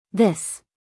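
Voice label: "this", American English, female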